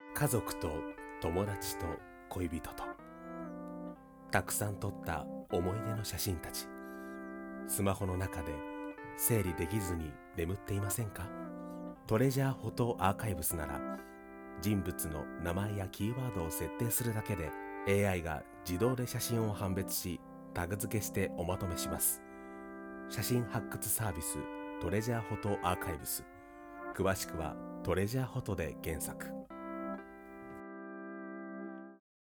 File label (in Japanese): ボイスサンプル CM 穏やかな男性